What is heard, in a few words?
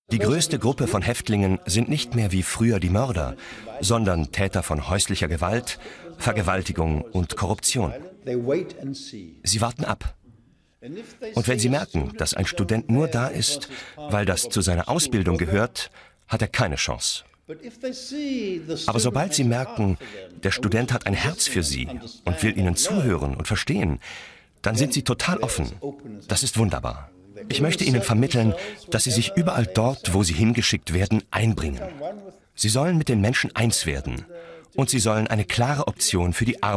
Voice Over; Deutsche Welle; Flores